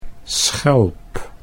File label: "schelp", Dutch